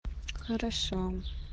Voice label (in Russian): jenskie golosovye sms